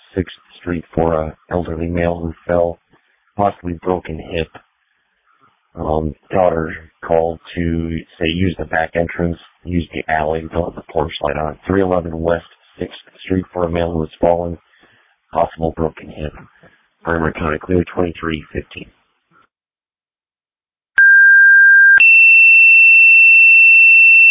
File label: Ambulance, dispatch; Sumner